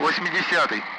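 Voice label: Speech, Russian, 8th; Allied Flight; DCS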